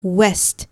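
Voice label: "west", English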